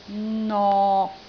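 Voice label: "nok6", Cantonese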